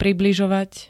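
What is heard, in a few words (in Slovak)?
"približovať", Slovak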